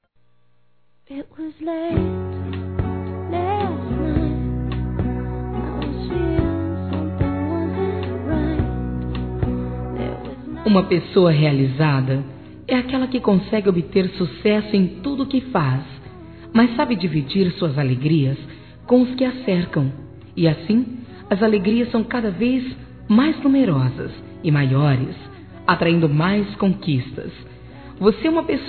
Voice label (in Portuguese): Aniversário Prima Primo Feminino; Aniversários; Aniv Familiar